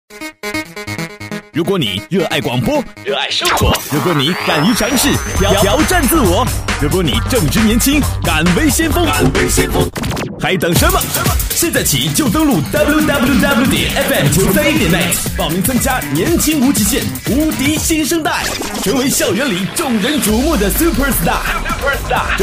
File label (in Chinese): 片花, 国语男声配音, 浑厚大气; 新声带